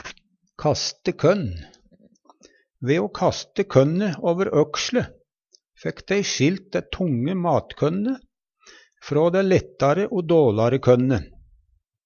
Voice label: kaste konn, Numedalsmål

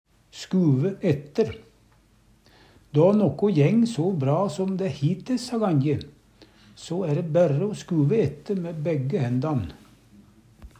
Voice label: "skuve ette", Numedalsmål

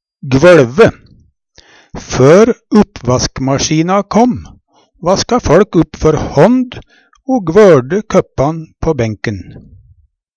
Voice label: "gvoLve", Numedalsmål

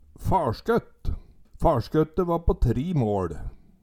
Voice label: "farskøtt", Numedalsmål; Eit jordstykkje som er avgrensa på sidene av til dømes grøfter.

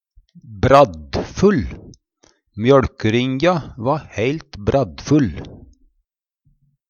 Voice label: braddfull, Numedalsmål; Adjektiv

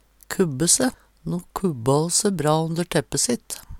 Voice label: "kubbe se", Numedalsmål

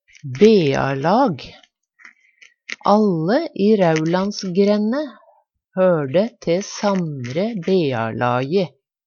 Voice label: "bearlag", Numedalsmål

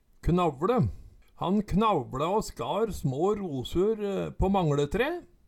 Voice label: knavLe, Numedalsmål; Han knavla å skar små rosur på mangLetre

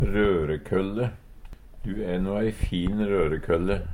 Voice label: rorekolle, Numedalsmål; Du e no ei fin rørekølle!

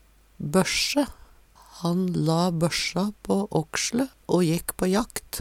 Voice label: "bøssje", Numedalsmål; Substantiv hokjønn; Jakt, fangst, fiske

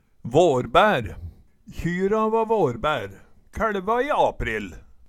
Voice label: varbaer, Numedalsmål; Kjyra va vårbær, kæLva i april

